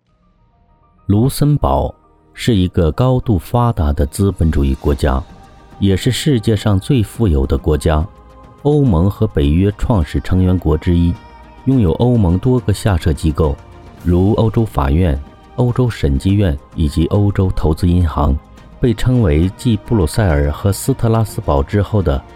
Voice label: 解说类异域风情; 卢森堡

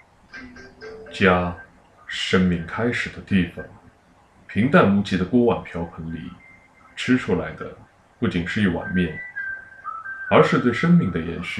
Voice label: 纪录片配音; 纪录片配音家

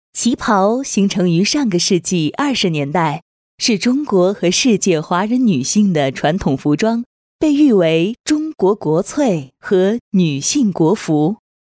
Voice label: 知性宣传片

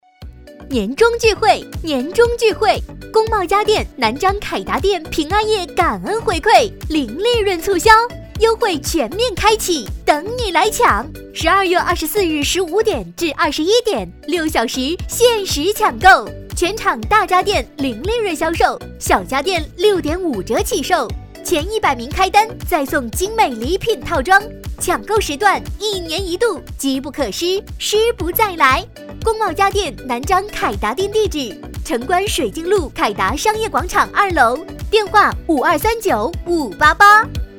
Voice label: 促销; 南漳凯达店

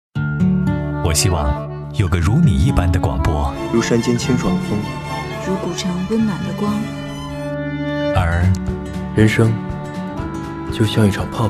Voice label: 电台改版升级宣传; 金鹰955电台改版升级宣传2路过版1

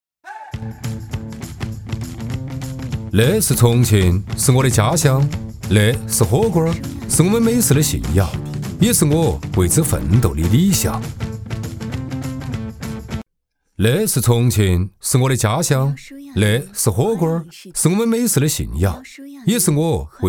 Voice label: 方言 重庆 不羁; 重庆理想

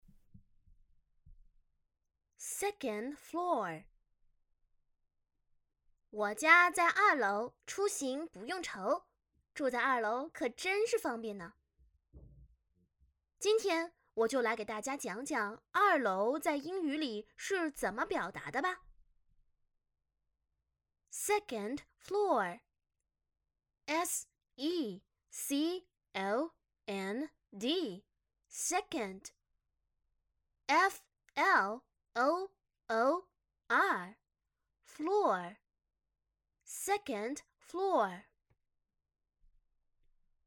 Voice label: 英文教学; 剑桥树英文教学

